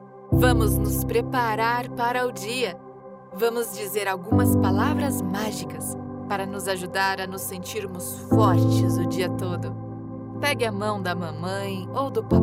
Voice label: Meditation Day Affirmation for Children Encouraging Enthusiastic Brazili; Brazilian Portugu